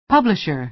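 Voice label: "publisher", American English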